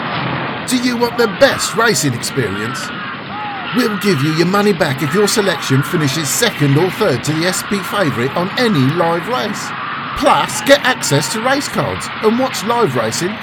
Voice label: with crowd; The Grid

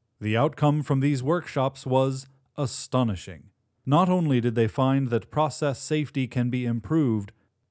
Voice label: corporate report

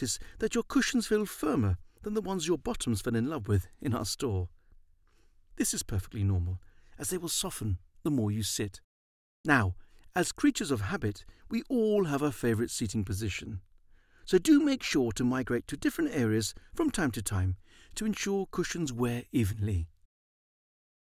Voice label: Sofa Ad

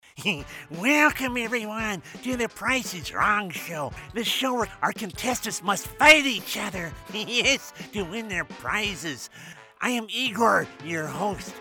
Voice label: Animated Voices

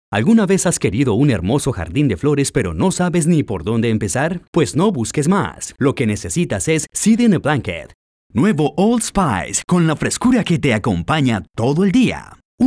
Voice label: Commercial Spanish Latin America Dry

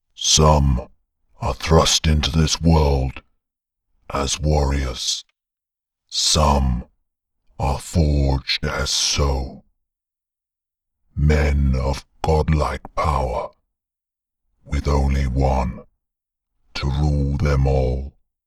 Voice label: Videogame God Like voice

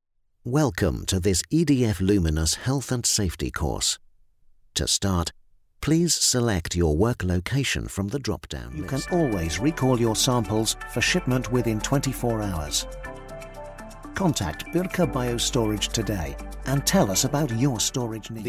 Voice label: corporate montage

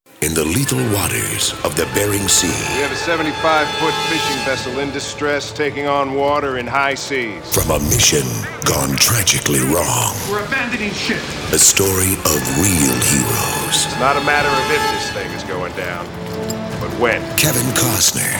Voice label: movie trailer

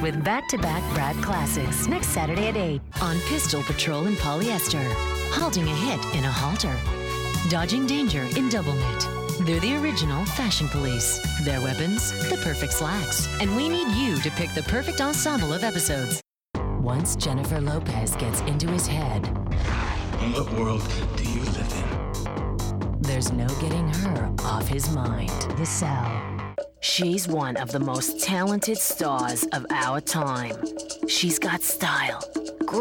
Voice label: TELEVISION PROMOS

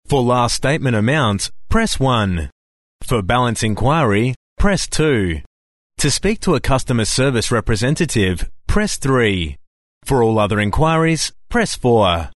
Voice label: IVR sample

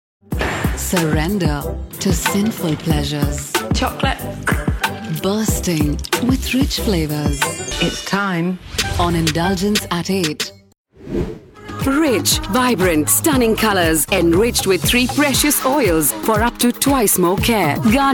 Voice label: English Commercial

